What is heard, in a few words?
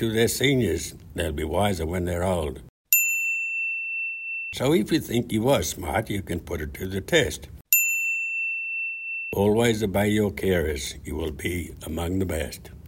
smarter children english audio only